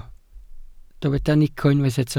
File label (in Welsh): "nico", Welsh